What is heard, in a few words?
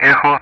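Speech Sound Russian Common Player Alphabet; Echo-continue; DCS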